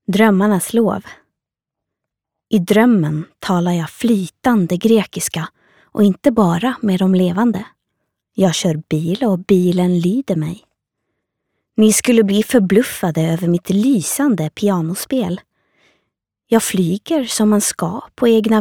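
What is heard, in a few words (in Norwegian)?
no svensk, Norwegian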